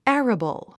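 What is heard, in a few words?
"arable", English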